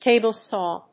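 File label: "table saw", English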